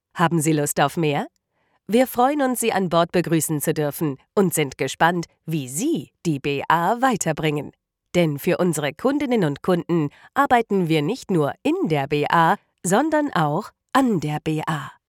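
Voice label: German elearning, female; tysk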